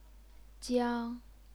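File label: "jiang", Chinese, first tone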